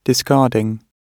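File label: "discarding", British English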